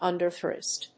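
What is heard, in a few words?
"underthrust", English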